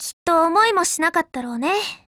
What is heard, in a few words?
MEET, JP; CZ100